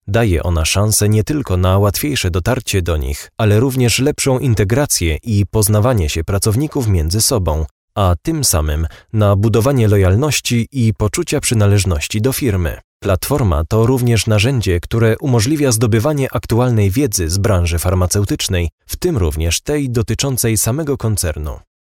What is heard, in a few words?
polish voice locutor polaco; locutor de Polonia